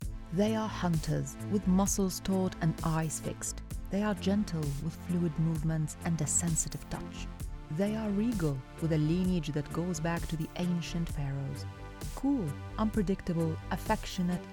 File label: Trailer